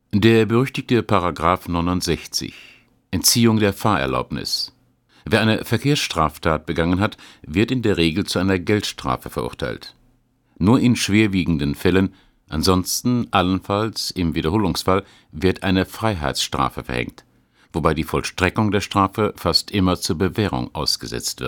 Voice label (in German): offstimmen fernsehen